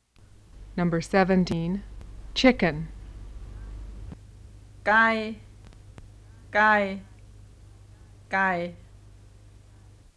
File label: THAI SUPANBURI Tones of Tai Dialects